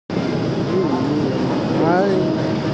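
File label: "nv3hai2", Mandarin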